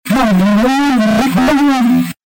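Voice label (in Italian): "sciogli", Italian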